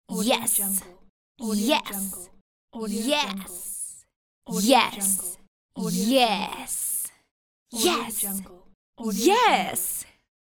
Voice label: teenage girl voice yes